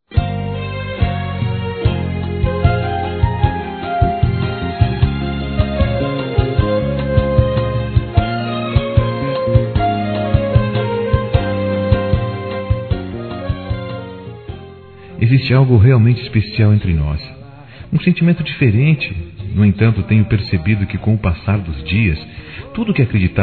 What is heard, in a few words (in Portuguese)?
VAMOS DAR TEMPO, Românticas, fem